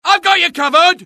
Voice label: alert, dialogue UK; mohaa